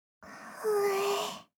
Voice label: 「ふぇえ」 少女 中音～高音域

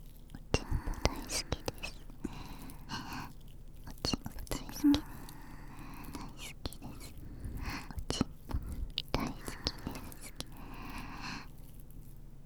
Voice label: 耳元おちんぽ大好き（両耳、手コキ）, ASMR

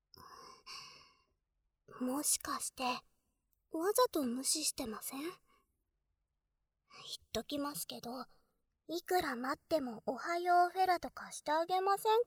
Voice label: 特典目覚まし音声

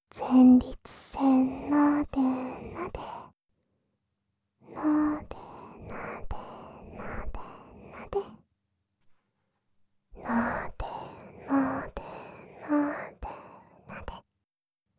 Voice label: ひたすら囁きながら, ASMR; メスイキループ; 乳首＆前立腺マッサージ

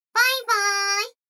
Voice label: 女の子台詞

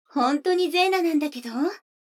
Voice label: 女の子台詞, ASMR

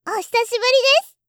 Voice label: おひさしぶりです, 挨拶

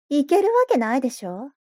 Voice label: mob 女子 台詞

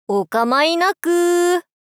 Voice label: おかまいなく～ 少女×中音域

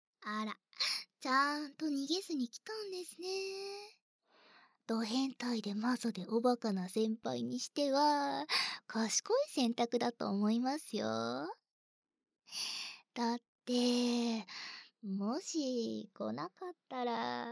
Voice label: BGM効果音なし; 『意地悪な放課後』 ～実はドSな後輩JKに囁き声で言いなりにさせられて嬲られるお話～; バイノーラル

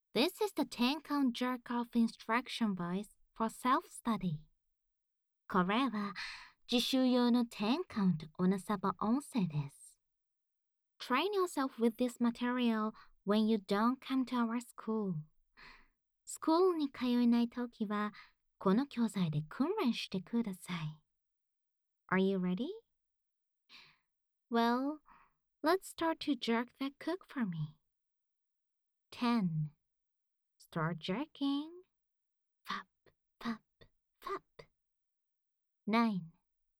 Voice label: 自習用カウントダウン音声, ASMR

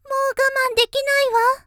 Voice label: 少女, 元気な少女; もう我慢出来ないわ

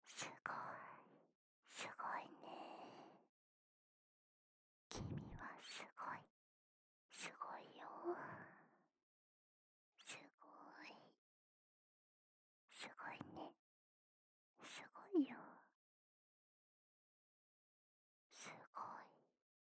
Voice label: 囁き 左耳 君はすごいよ

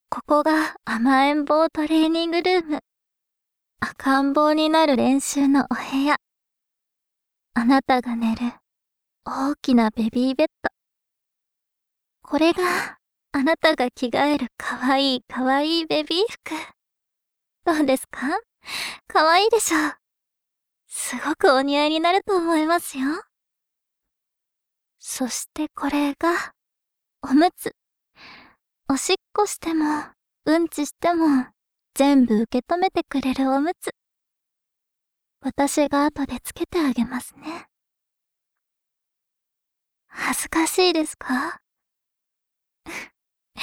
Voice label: 甘えん坊トレーニング, ASMR

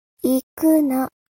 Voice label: mob 女子 台詞

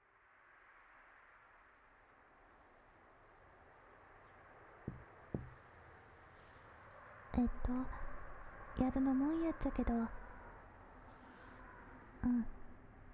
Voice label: 宮崎弁 (木枯らしバージョン); 田舎の古宿ン娘の夜伽

